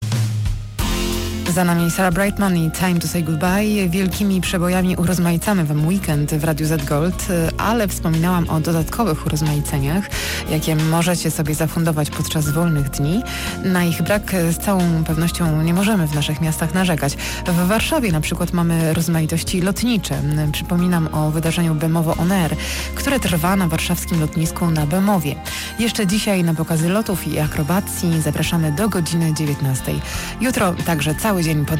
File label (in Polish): Lektorka; Studio Nonagram